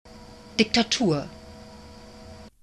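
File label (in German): "Diktatur", German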